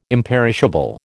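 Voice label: "imperishable", American English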